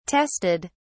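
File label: "tested", English, British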